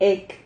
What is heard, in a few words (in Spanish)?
"hec", Silabas